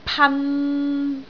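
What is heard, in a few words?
"pan3", Cantonese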